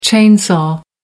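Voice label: "chain saw", British English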